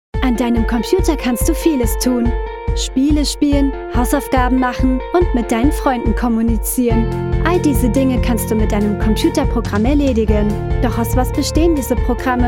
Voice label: Explainer